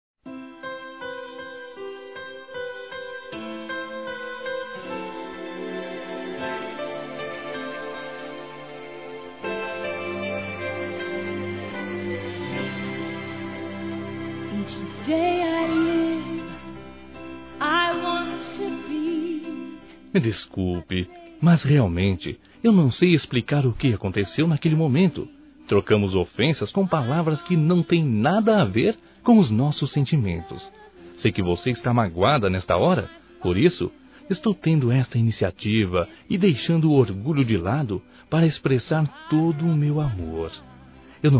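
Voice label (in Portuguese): desculpas romântica, masculino; Telemensagens 2017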